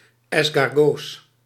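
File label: "escargots", Dutch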